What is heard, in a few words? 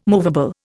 "movable", Indian